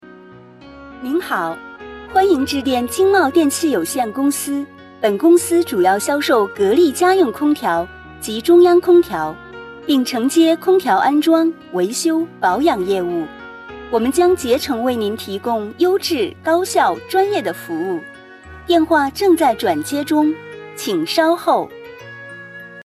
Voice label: 彩铃

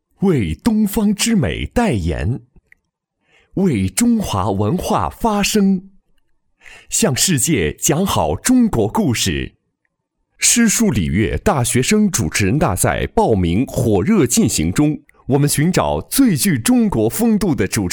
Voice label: 主持人大赛 中等活泼

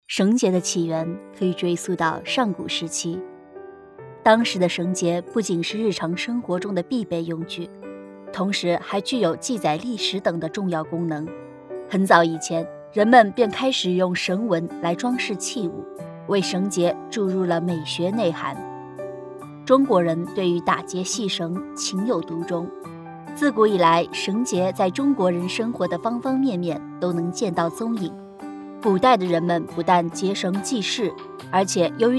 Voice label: 申遗片配音

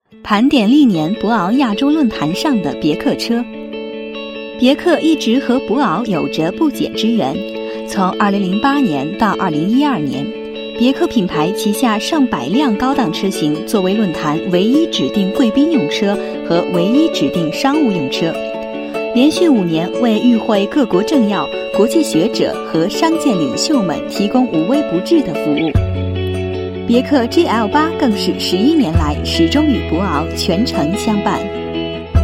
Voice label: 汽车宣传

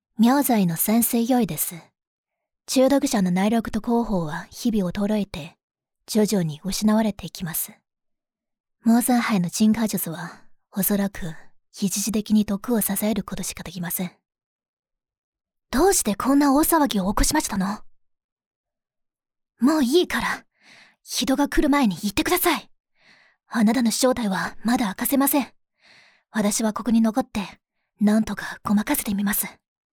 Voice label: 师妹, 日语; 外语配音

